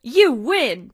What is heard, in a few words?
You Win, announcer female